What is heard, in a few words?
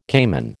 "caiman", American